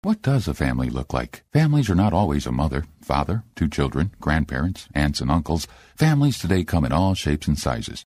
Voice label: informative